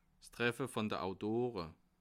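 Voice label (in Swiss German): "treffe", Alsatian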